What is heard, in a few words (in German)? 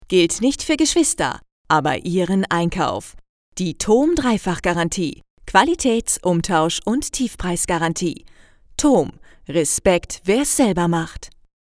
sprecherin; Podcast Moderatorin & professionelle Sprecherin; toom